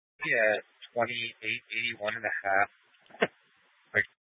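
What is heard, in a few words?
Police Dispatch, Scanner audio recording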